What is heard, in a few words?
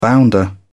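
"bounder", British English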